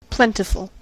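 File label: "plentiful", US English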